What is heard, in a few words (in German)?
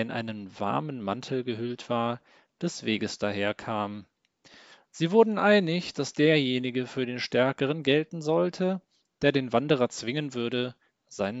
Nordwind und Sonne alte Stimme